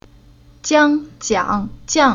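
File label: "Jiang", Chinese syllable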